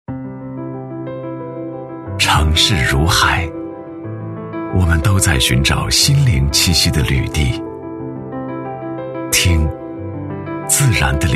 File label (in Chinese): 男, 电台广告, 磁性 品质; 醇厚磁性 质感磁性; 音乐晓心情